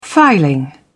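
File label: "filing", English, British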